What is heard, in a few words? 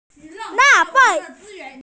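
"waste", Mandarin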